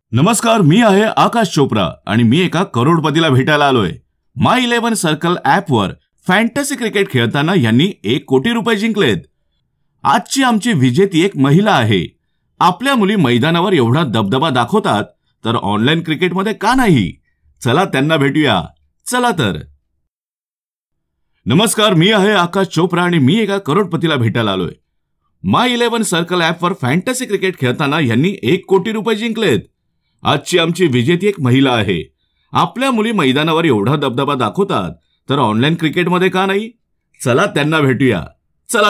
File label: marathi